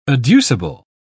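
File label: "adducible", British English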